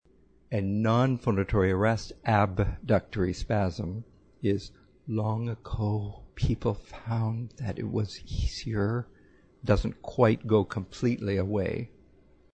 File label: Adductory non phon arrest, spasmodic dysphonia; A phonatory arrest is a manifestation of spasmodic dysphonia, in which the voice suddenly “chokes off” in the middle of an intend; Laryngopedia